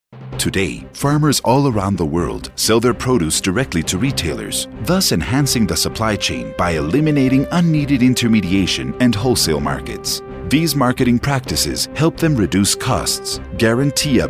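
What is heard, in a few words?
ENGLISH, friendly, natural, warm, authoritative; Commercial Voiceover, TV and Radio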